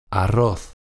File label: "arroz", pron